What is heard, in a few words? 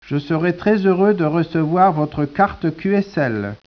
speech, French